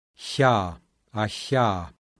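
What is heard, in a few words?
voiceless palatal fricative; IPA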